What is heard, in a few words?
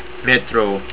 "SUBWAY", Estonian